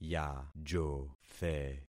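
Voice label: "yajothe", concatenated syllables; Affixing experiment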